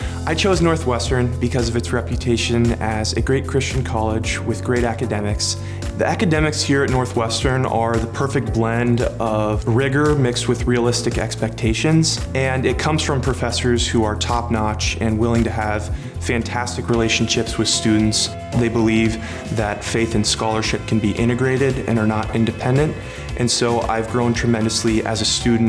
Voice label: Radio spots